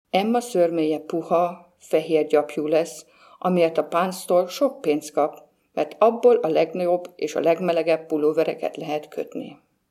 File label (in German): ungarisch